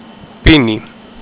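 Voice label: "pinei", Greek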